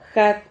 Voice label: "jad", Silabas

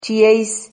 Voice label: "chieis", Silabas

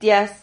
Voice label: "tias", Silabas

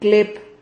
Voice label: "clep", Silabas

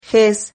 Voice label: "ges", Silabas

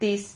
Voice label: "tis", Silabas

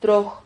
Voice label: "troj", Silabas

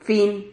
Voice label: "fin", Silabas

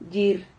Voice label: "llir", Silabas

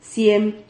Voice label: "ciem", Silabas

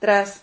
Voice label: "tras", Silabas

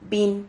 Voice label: "vin", Silabas

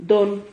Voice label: "don", Silabas

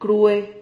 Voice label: "crue", Silabas

